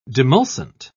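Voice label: "demulcent", British English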